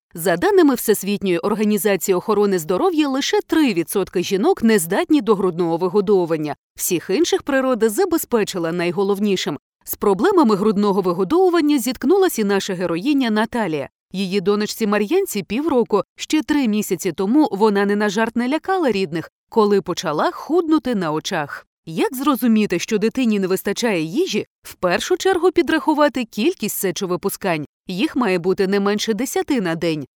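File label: Ukrainian female voice over, upbeat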